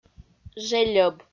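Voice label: "жельӧб", Komi, female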